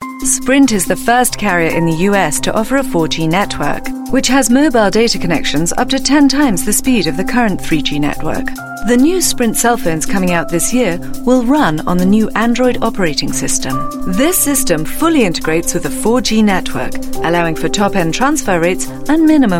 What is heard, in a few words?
UK English commercial; Sprint